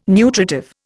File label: "nutritive", Indian